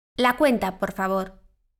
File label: conversation